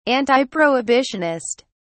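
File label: "antiprohibitionist", British English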